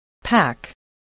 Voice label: "pack", English voice

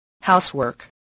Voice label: "housework", voice spelling

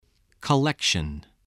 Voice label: "collection", voice

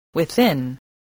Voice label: "within", voice, english

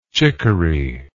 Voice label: "chiccory", English

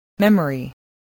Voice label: "memory", voice, spelling

